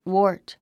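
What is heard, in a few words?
"wart", English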